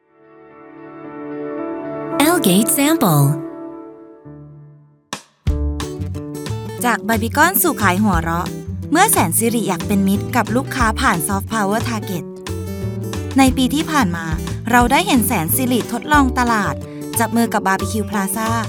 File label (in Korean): 태국 여 밝은 톤